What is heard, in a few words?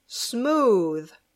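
"smooth", English; palabra del dia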